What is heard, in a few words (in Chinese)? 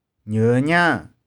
"nieu1niang1", 玉環